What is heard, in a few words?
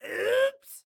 "Ooops", Excited Guy